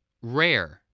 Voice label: "rare", english